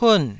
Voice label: "pun4", taiwanese